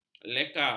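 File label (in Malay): "lekar", Malay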